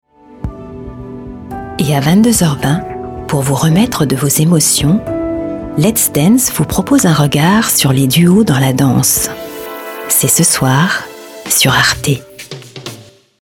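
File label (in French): BANDE ANNONCE, Voix off; LET'S DANCE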